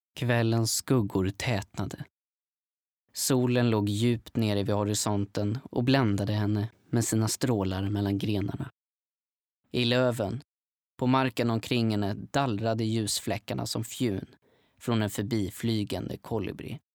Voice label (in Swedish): Röstprov Ljudbok